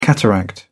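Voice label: "cataract", British English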